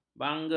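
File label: "bangga", Malay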